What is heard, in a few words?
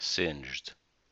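"singed", US English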